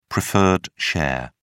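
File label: "preferred share", English, British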